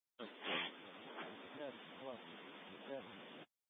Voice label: Scanner audio, Airport Rescue Fire; Grand Junction Regional Airport (GJT) Airport Rescue Fire Depart